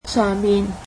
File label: above, cantonese